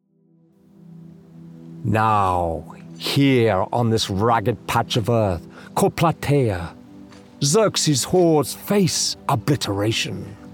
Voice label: Movie Trailer, Epic Cinematic Movie Trailer, British Male Voice; Movie Trailers; Spartacus